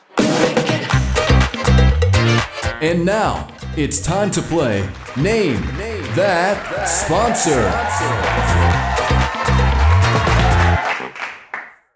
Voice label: radio voice over